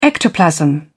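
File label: "ectoplasm", British English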